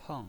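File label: "peng" fourth tone, pinyin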